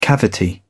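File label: "cavity", British English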